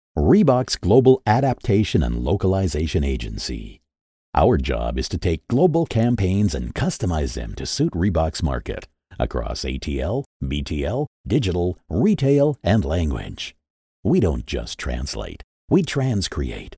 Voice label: english american others